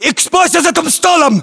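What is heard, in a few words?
order cover table, battlechatter, Russian; localized english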